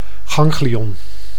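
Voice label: "ganglion", Dutch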